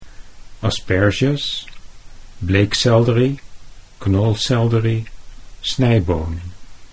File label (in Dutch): "asperges", Dutch